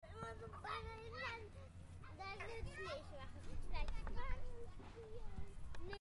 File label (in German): kinderstimmen